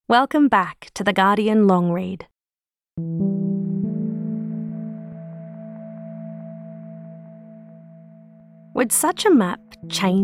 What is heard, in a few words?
Narration